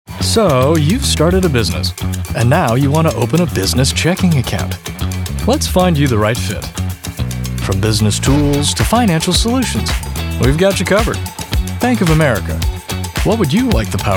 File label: Commercial